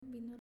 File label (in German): "Vino" Spanisch